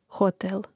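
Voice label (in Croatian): "hotel", hrvatski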